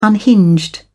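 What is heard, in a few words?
"unhinged", British English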